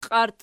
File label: "qiarti", Archi